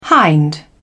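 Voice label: "hind", British English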